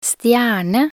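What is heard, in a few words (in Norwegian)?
"stjerne", Norwegian; talkbook; med bokstavnavn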